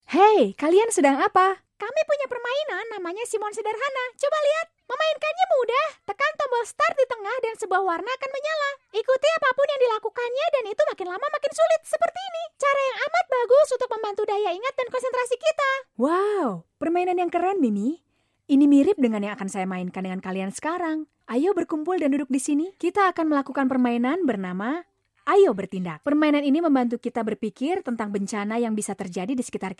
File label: Indonesian F Character